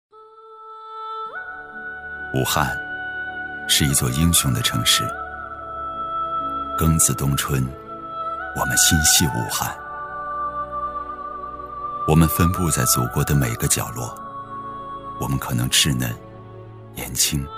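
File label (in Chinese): 男 温柔走心【低低讲述】; 低沉磁性 质感磁性; 武汉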